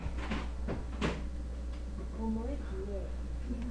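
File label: "cube", spoken word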